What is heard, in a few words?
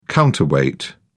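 "counterweight", British English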